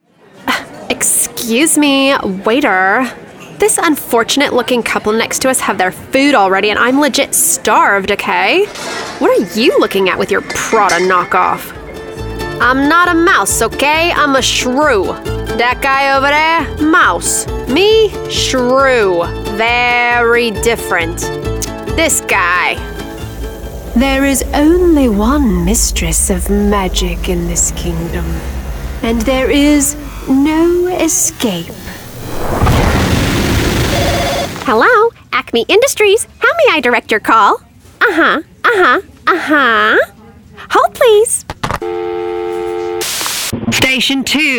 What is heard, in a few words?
Animation